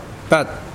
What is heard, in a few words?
Mokilese eyebrow